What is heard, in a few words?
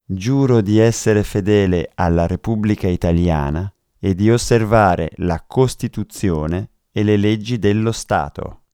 Italian citizenship oath